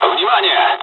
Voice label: dialogs russian; War Thunder